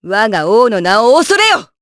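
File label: Vox Skill, Japanese; Hilda; kingsraid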